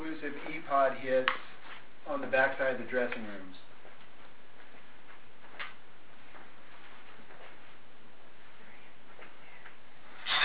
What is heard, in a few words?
whispers in dressing room almost sounds like here I am right here